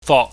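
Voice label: "fok", Cantonese, mid level tone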